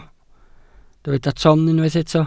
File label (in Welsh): "ton", Welsh